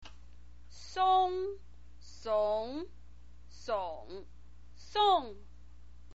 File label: "song", Chinese pinyin voice